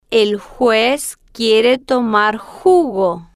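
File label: el Juez Quiere Tomar Jugo, slow, Spanish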